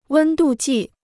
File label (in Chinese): "温度计", Chinese